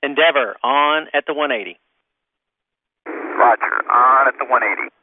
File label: NASA on at the 180, NASA Space Shuttle Communications; VOCALS and SPOKEN WORD